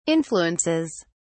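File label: "influences", British English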